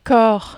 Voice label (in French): "corps", French